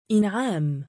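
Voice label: "2in3aam" male, Arabic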